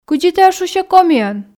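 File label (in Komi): кыдзи тайӧ шусьӧ комиӧн, Komi, female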